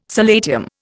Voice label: "solatium", Indian